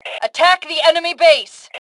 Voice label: Attack Base, Female; T2 Female 03